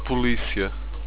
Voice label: police, europortuguese